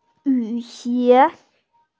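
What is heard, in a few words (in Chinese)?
"yu3xie2", Mandarin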